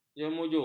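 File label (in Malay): "jemuju", Malay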